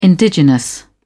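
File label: "indigenous", British English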